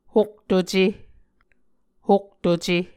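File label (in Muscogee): "hoktuce", Muscogee word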